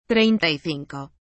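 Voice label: "treinta y cinco", Spanish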